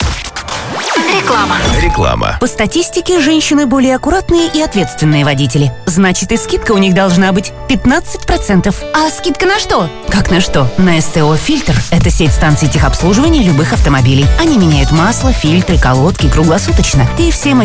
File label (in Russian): Reklama; Kiss FM Moskva 107.0 FM